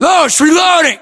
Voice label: inform reloading, US